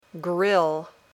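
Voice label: "grill", English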